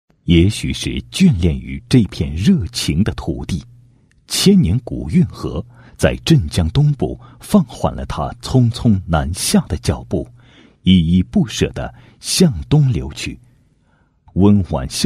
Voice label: 男 浑厚; 镇江; 科技开发区

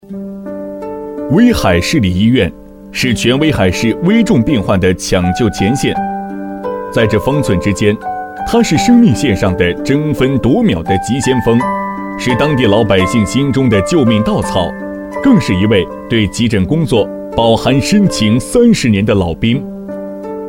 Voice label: 男62 人物宣传-医生（自然）

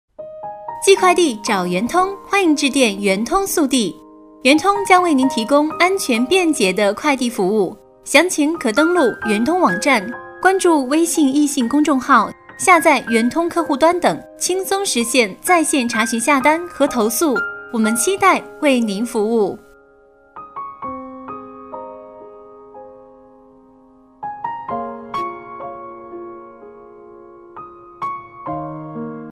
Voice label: 女 速递; 圆通速递

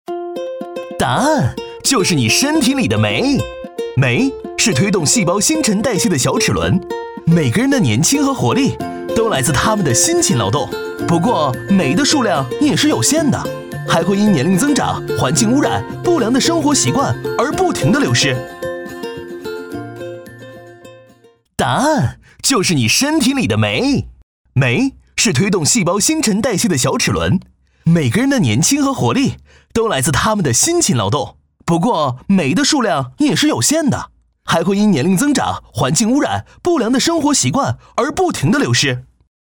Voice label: 男 轻松诙谐

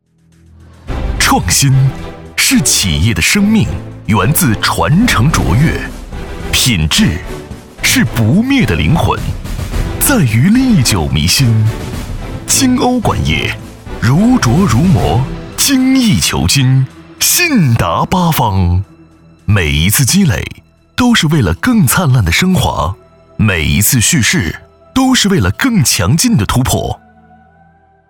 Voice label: 男 大气浑厚激情; 晶欧管业

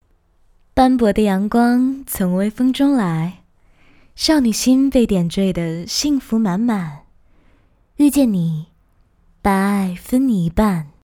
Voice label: 女 年轻女性

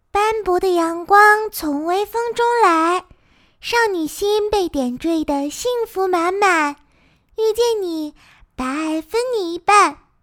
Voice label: 女 女童

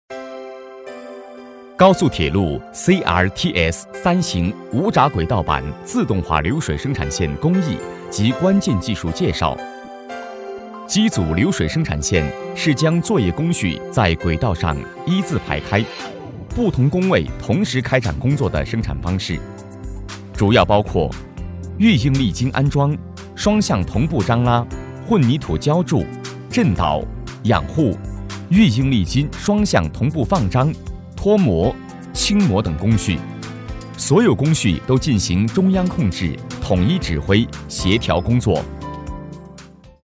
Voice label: 男 稳重正式; 高速铁路CRTSШ

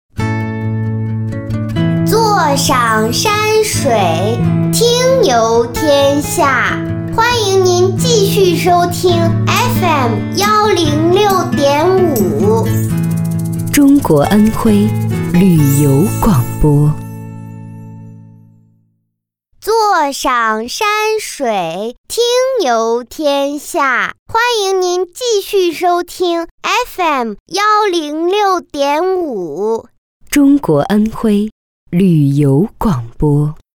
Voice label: 女 童声女

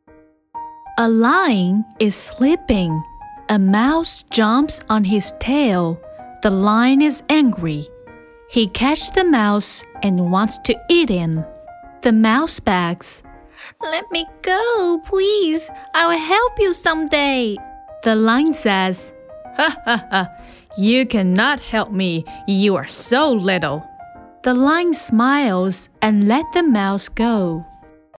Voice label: 女 英 绘本 儿童故事

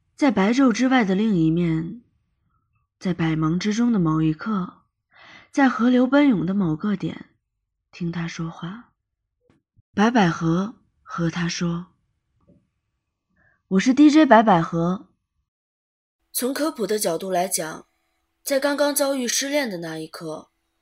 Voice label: 女 模仿; 模仿白百合

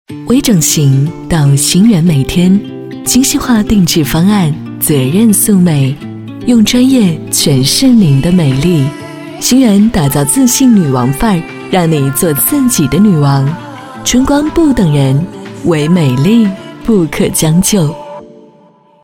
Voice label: 女 星源整形（品质感）

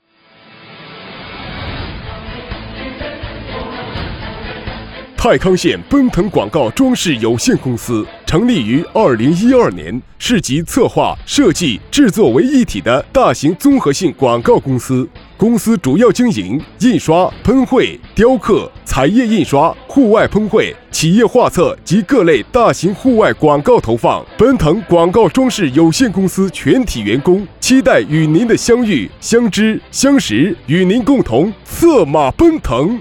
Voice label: 男85 【促销】浑厚有力

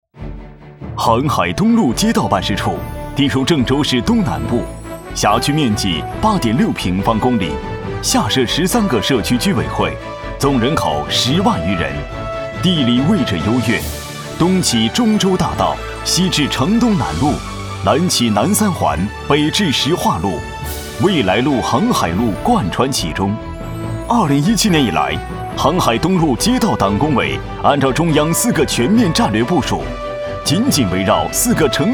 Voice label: 男 大气激情--航海办事处

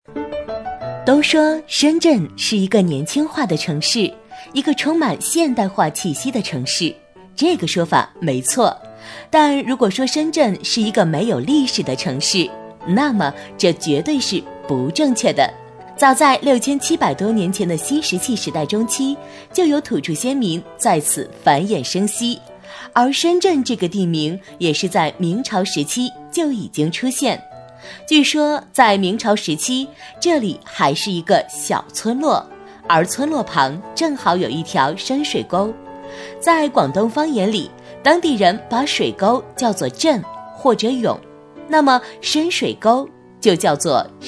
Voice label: 女 深圳城市介绍（轻松）

